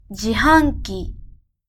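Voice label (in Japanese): "自販機", Japanese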